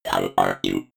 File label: robot voice how are you fx